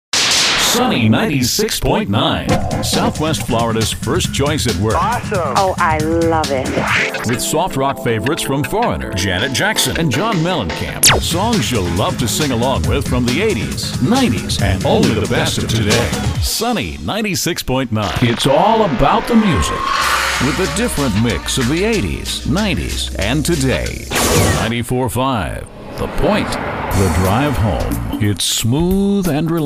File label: RADIO